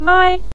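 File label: "mai" neutral tone, Mandarin Chinese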